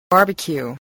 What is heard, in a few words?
"barbecue", English